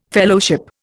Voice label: "Fellowship", Indian